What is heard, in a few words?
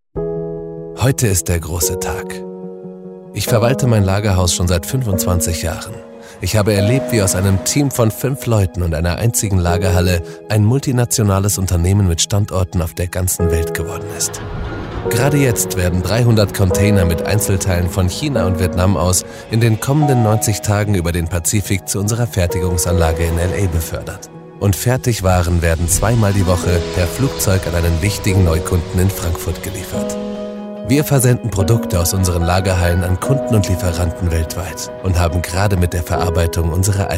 german narration